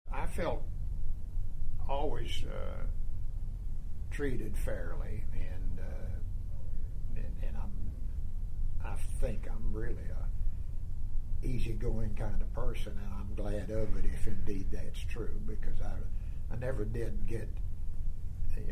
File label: Interview